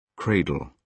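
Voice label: "cradle", British English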